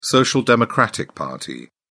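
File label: "social democratic party", British English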